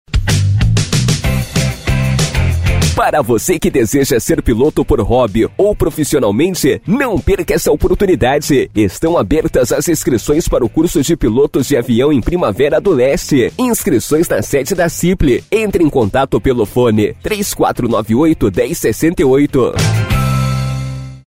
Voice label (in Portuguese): áudio simples